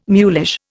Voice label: "mulish", Indian

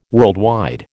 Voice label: "worldwide", American

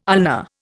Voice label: "ulna", Indian

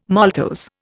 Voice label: "maltose", Indian

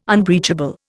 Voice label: "unbreachable", Indian

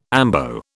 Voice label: "ambo", British

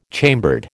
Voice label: "chambered", American

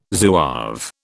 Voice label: "Zouave", British

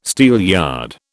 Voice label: "steelyard", British

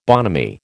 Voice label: "bonhomie", American

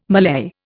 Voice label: "mallei", Indian

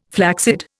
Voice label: "flaccid", Indian

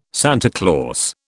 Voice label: "Santa Claus", British

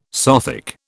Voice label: "Sothic", British

